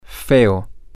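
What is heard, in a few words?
ugly, spanish, male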